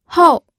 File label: "hou4", pinyin audio